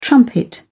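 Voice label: "trumpet", British English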